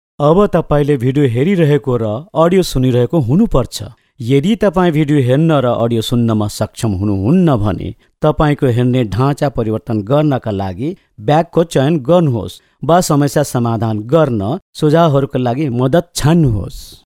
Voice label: Nepalese Male